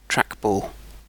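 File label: "trackball", British English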